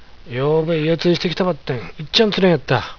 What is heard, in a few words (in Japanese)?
有田弁, よーべいおついしてきたばってん、いっちょん釣れんやった。; 単語 いおつい; 意味 魚釣り; 訳文 昨夜魚釣りしてきたけど、全然釣れなかった。